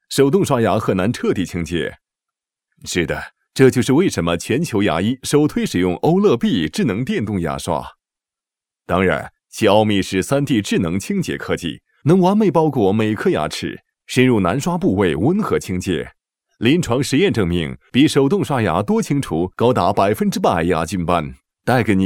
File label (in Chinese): 男 产品介绍; 欧乐B